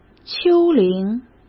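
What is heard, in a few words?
"丘陵", Mandarin Chinese; hsk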